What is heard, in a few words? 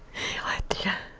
ingressive female; ingr eh-eg-veit-ikki; JIPA 2008